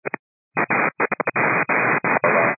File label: 122 925MHz, scanner radio